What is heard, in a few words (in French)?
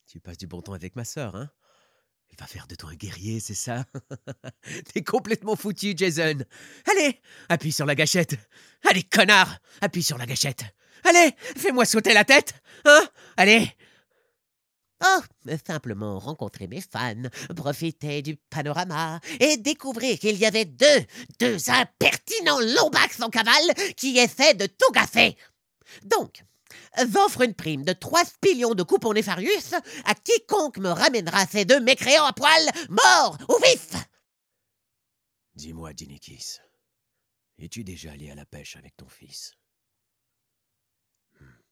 francais quebecois naturel jeu video medium